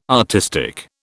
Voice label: "artistic", British